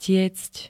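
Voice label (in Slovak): "tiecť", Slovak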